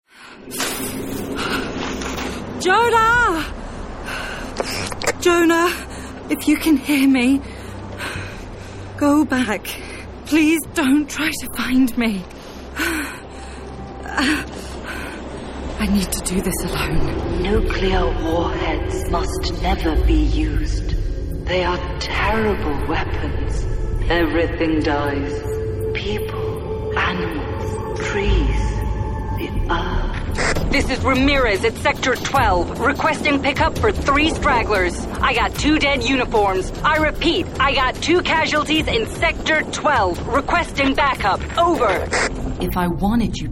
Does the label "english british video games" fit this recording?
Yes